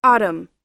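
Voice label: "autumn", US English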